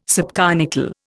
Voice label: "subconical", Indian